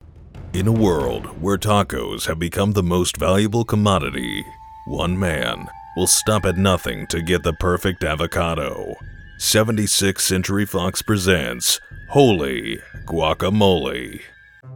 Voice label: Movie Trailer